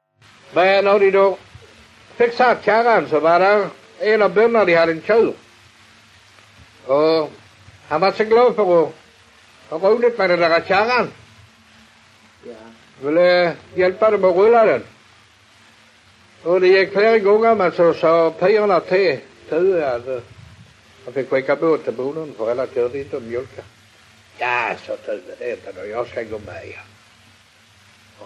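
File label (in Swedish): Allerum Skåne, dialekter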